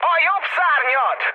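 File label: Hungarian right wing mood high, speech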